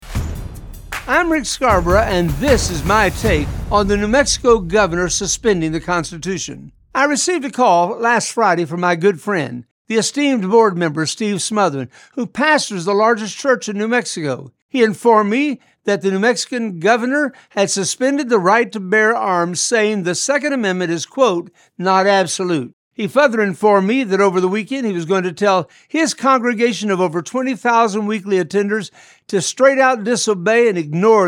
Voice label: Radio Spot; 2A New Mexico